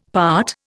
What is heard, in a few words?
"part", Indian; Dictionary